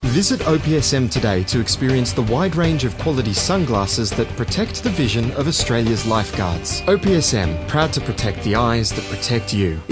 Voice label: Energetic Advertisin